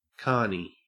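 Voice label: "carnie", Australian English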